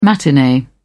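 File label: "matinee", British English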